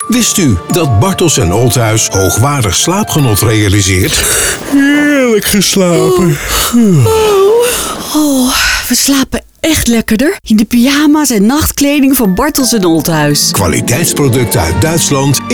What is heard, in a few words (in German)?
Werbung